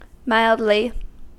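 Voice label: "mildly", US English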